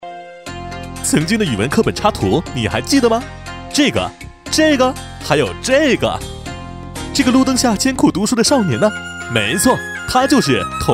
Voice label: 男60; 童第周故事; 国语男声配音; 交广主播; 飞碟说

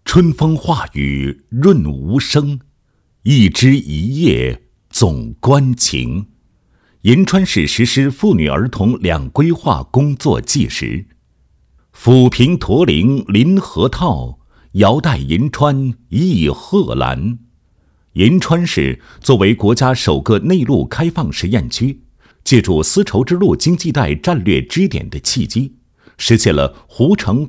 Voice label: 男 工作纪实; 市实施妇女儿童 两规划

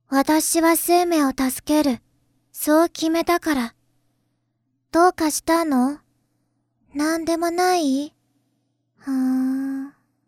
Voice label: 女; 神乐

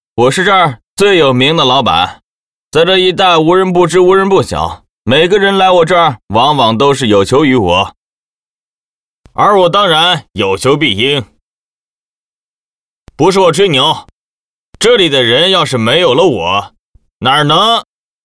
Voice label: 角色 男 拽拽的语气

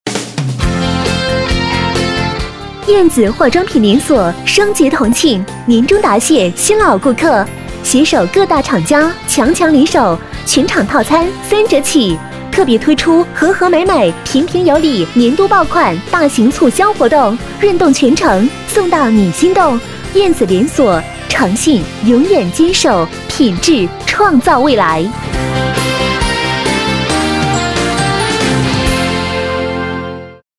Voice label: 女1 广告 化妆品连锁, 国语女声配音, 磁性; 燕子化妆品连锁

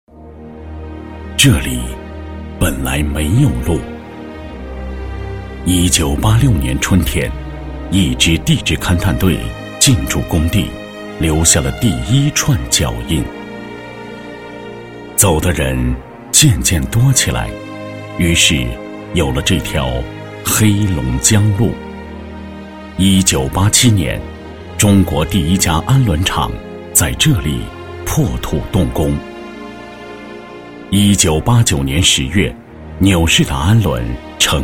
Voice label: 男 专题 沉稳厚重; 央视大气专题; 沙画

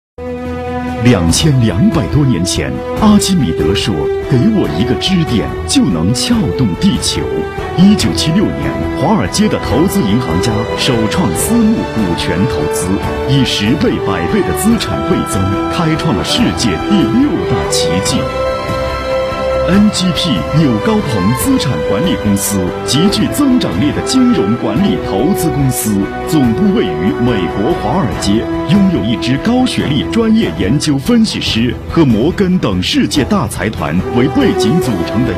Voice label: 男 企业宣传短片; NGP纽高鹏

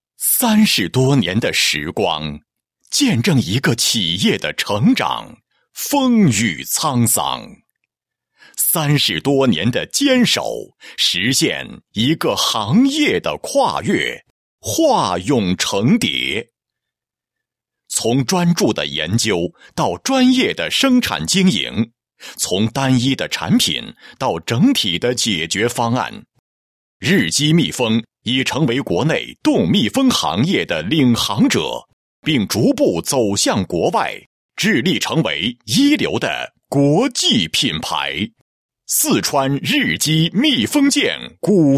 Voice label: 男 企业; 四川日机密封件股份有限公司

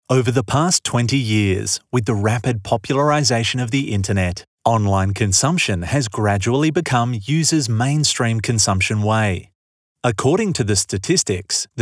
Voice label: 外籍男, 宣传; 消费链

